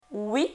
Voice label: "Oui", francais; Conversations; Lingvo; Leçon4